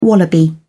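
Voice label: "wallaby", British English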